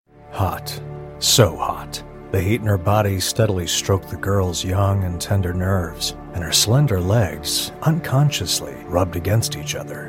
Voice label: Audiobook Adult Erotic TAME; NSFW; Voice123